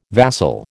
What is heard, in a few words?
"vassal", American